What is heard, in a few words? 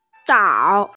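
"zhao3", Mandarin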